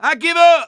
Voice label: surrender, English Voice